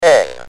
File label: "N", UK Speech